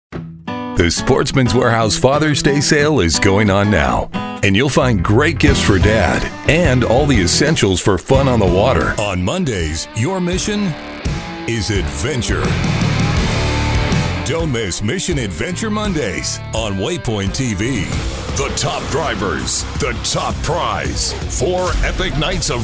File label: Voice Over